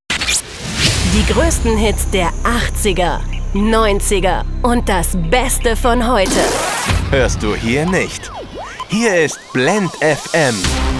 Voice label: station voice FM jingle; Muttersprache Deutsch; Sprecher in Berlin

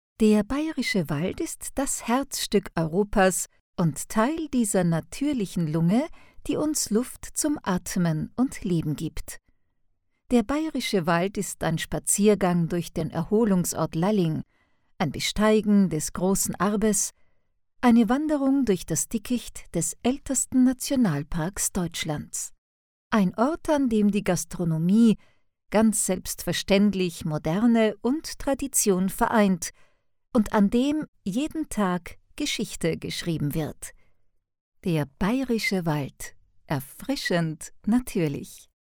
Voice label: documentary; bavaria

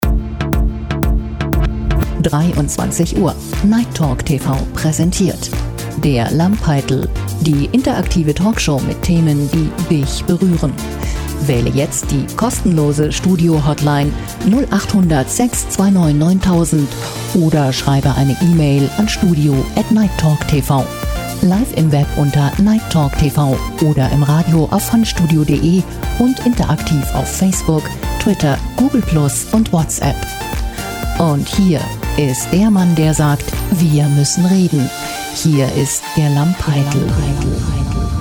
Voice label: trailer; nighttalktv

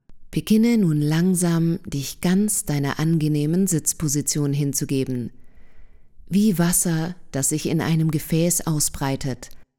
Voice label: meditation d short

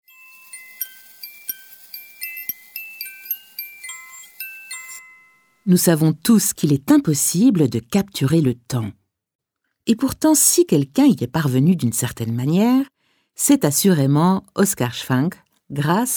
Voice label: audioguide museum

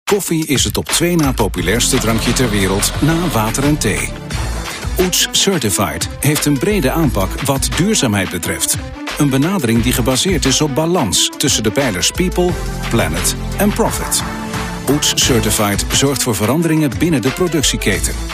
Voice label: soft selling

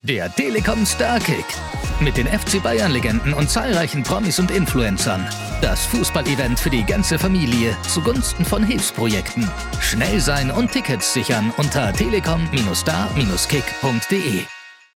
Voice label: trailer; Muttersprache Deutsch; telekom starkick

